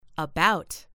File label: "about", US English